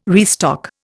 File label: "restock", Indian